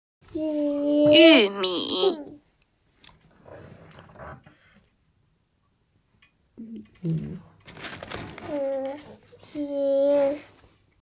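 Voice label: "yu mi", Mandarin Chinese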